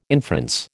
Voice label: "inference", American